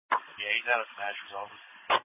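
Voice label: Police Patrol (Dispatch)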